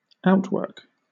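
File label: "outwork", British English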